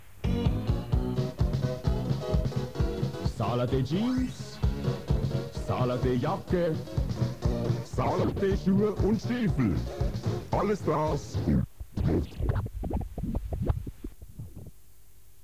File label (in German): werbung radio; salathe; radio one